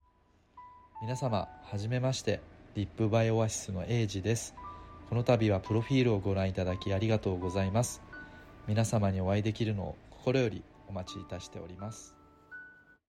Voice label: voice, therapist